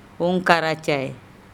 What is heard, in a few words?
"ongkaracay", andamanese; handicapped person (crippled)